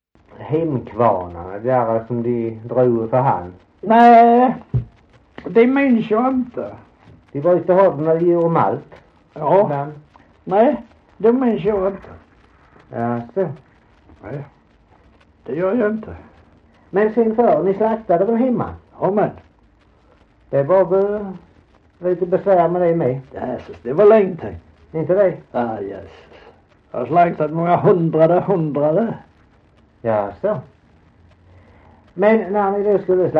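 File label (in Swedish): Holm Halland, dialekter; Folkminnen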